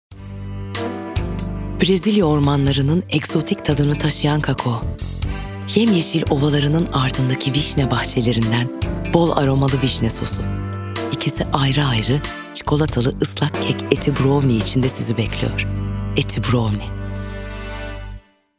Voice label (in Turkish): sicak sakin